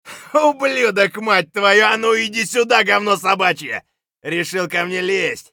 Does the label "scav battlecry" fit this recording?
Yes